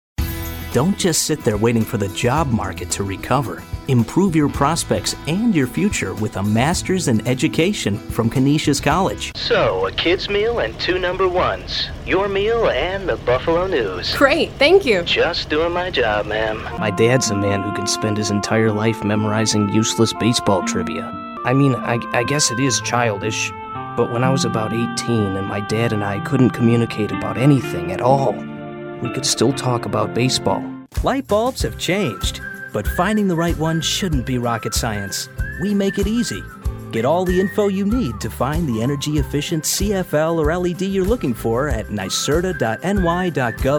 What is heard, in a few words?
Men Commercial